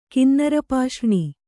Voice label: "ಕಿನ್ನರಪಾಷ್ರ್ಣಿ", Kannada

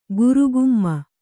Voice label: "ಗುರುಗುಮ್ಮ", Kannada

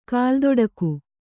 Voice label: "ಕಾಲ್ದೊಡಿಗೆ", Kannada

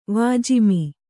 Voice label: "ವಾಜಿಮಿ", kannada

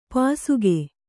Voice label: "ಪಾಸಿಕೆ", Kannada

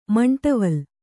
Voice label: "ಮಂಟವಲ್", Kannada

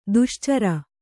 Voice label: "ದೋಷಾಚರ", Kannada

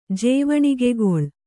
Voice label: "ಜೇವಣಿಗೆಗೊಳ್", Kannada

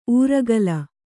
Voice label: "ಊರೆಗೋಲು", Kannada

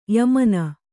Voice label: "ಯಮನ", Kannada

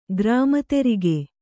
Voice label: "ಗ್ರಾಮತೆರಿಗೆ", kannada

